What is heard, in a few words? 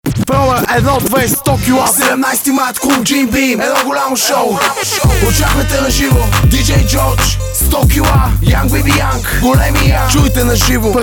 Spot